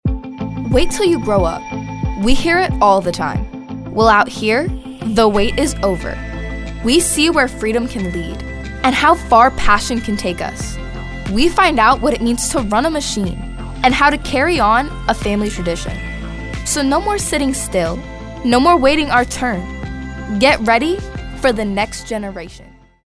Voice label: inspirational